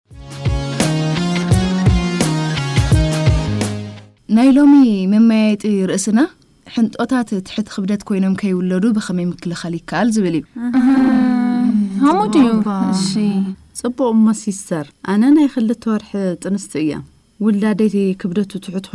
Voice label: media message for regional radio broadcast tigrigna